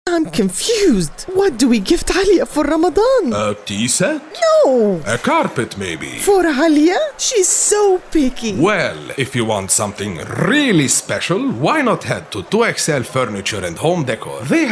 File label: Radio commercial